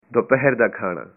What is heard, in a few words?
lunch, punjabi